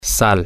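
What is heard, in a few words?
salt, male, spanish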